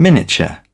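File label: "Miniature", British English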